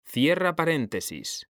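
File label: "CERRAR PARENTESIS", Spanish; mekanta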